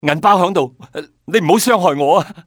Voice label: "wallet", male; XBOX; civ